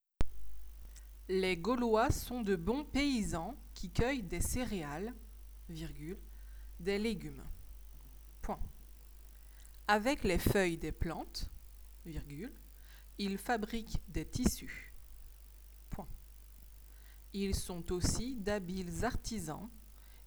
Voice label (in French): dictee